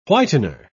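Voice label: "whitener", British English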